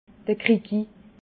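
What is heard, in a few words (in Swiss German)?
"krikri", Alsatian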